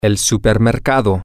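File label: the Supermarket, spanish, male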